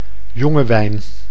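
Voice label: "jonge wijn", Dutch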